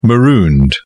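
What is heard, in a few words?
"marooned", British English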